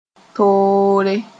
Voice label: "toore", Mooré; different